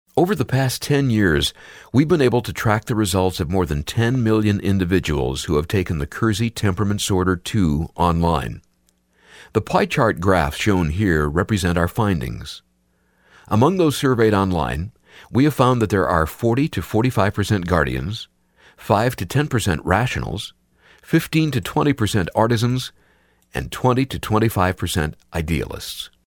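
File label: english us male e-learning; voice demos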